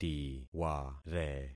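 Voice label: "tiware", concatenated syllables; Affixing experiment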